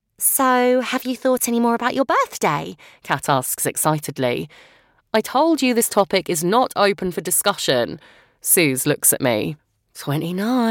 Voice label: audiobook, Estuary Accent, Millennial Female Voice